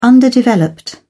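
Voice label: "underdeveloped", British English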